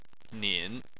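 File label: "nin" third tone, Chinese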